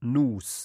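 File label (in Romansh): "nus", Romansh sursilvan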